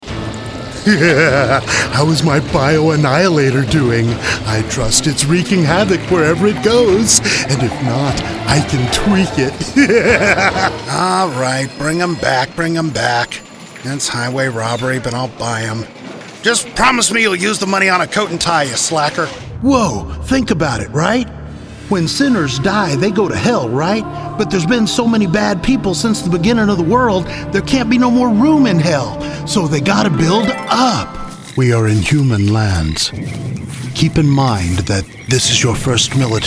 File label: Animation